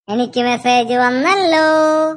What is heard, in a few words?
malayalam message